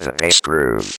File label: Bass Groove Vox, robotic vox; 90s Sample CDs; Club Techno